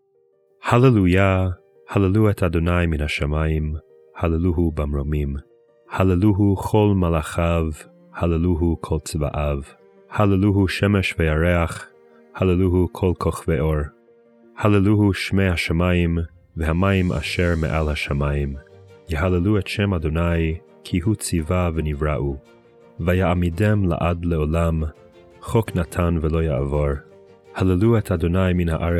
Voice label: poetry and prayer psalm hebrew